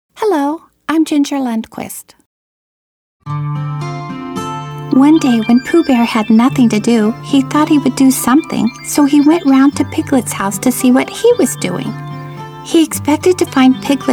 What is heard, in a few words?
narration